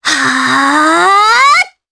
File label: Vox Casting, jp; Cleo; kingsraid